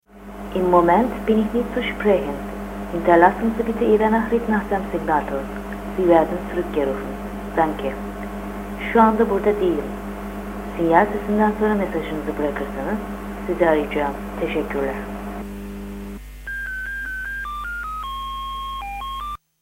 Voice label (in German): Anrufbeantworter